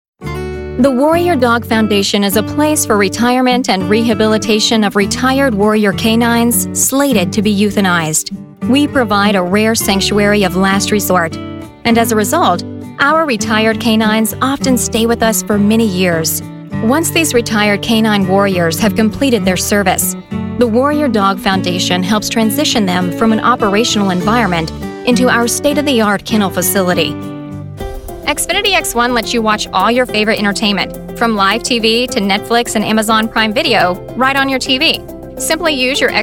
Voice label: english american corporate

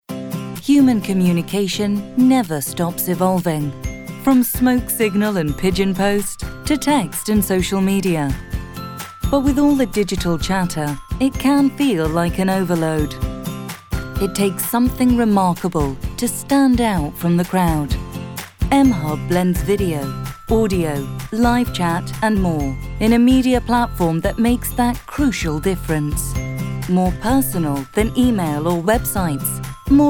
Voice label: english british narration

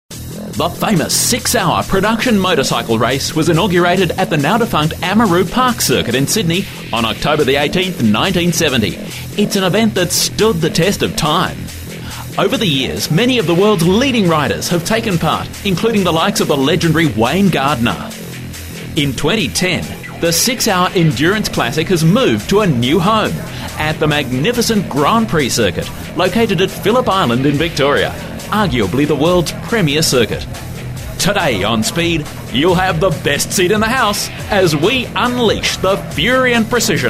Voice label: english australian promos

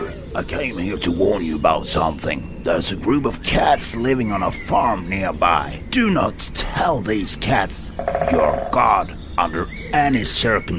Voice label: English video games

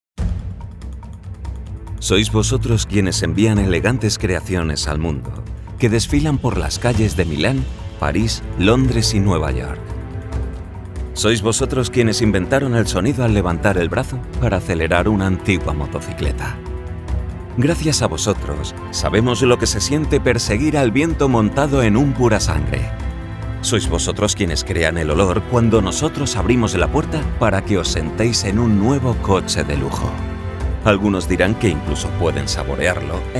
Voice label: spanish corporate videos

